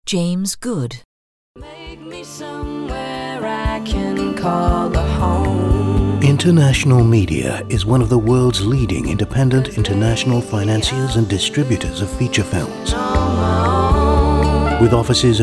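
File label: narration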